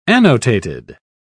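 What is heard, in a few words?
"annotated", British English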